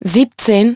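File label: "seventeen", English; iOS 4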